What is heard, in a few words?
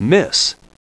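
"miss", English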